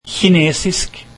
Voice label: Chinese, norwegian

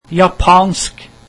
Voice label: Japanese, norwegian

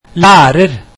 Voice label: teacher, norwegian

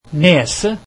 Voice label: nose, norwegian

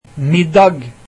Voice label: dinner, norwegian

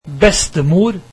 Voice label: grandmother, norwegian

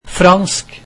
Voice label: French, norwegian

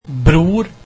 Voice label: brother, norwegian

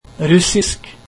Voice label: Russian, norwegian

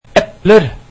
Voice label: apples, norwegian

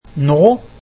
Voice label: now, norwegian

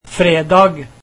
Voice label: Friday, norwegian

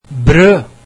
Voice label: bread, norwegian

